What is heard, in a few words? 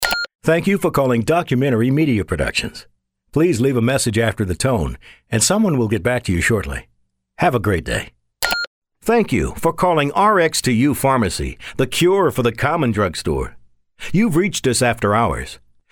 Telephony mix